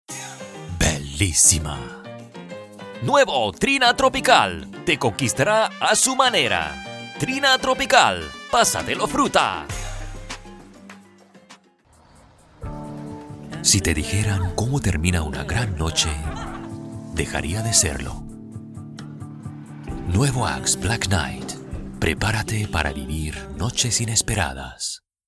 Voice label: Spanish upbeat; 20s - 30s; Male